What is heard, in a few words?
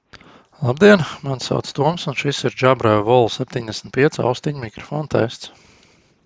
jabra evolve 75 mic